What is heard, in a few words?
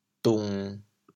"dung1", chaoyang